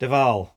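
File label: "devall", Cromarty Fishertoun dialect; [div-AHl]; to cease, stop; Word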